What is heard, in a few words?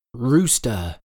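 "rooster", English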